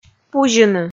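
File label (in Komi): "пузьыны", Komi, female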